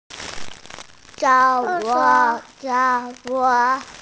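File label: "ce4suo3", Mandarin Chinese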